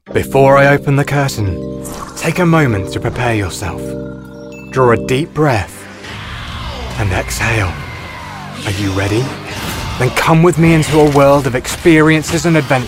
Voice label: Commercial